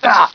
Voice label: pain; svencoop; barney

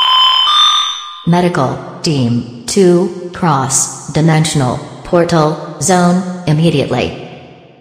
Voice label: announcement; svencoop; st mission; core

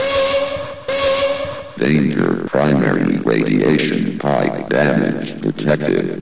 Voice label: announcement; svencoop; st mission; sector19

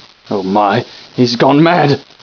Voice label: scared; svencoop; scientist; trask

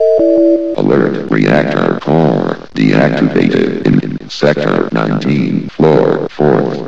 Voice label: announcement; svencoop; st mission; sector19

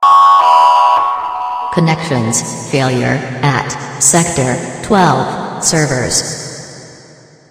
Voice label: announcement; svencoop; st mission

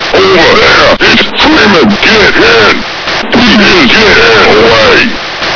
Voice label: intro grunt; svencoop

